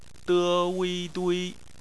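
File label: "dui" bopomofo, Chinese; phonetics; bpmf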